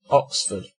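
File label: "oxford", British English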